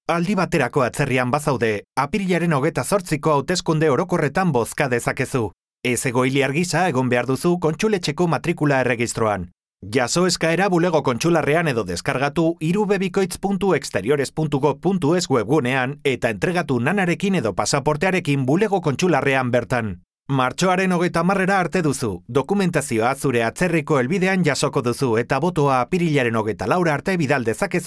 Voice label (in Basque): EUSK SPOT